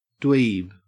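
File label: "dweeb", Australian English; pronunciation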